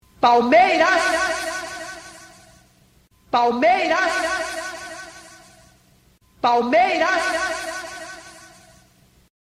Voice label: palmeiras eco

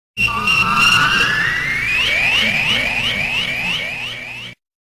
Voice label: vinheta de futebol radio am tempo e placar

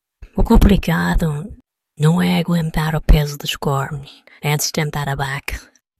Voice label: voz misteriosa

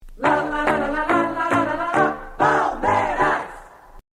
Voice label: palmeiras radio; globo

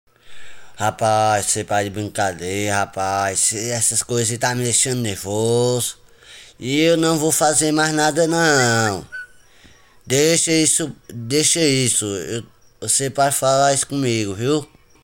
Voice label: nervoso

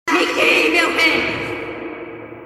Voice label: me guie meu rei echo

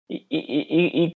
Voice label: gaguejando, gaguejada

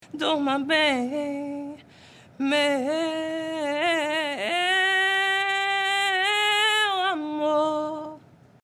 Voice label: durma bem, com vibrato

